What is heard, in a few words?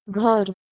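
"ghar", Proto-Indo-European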